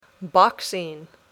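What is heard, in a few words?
"Boxing", English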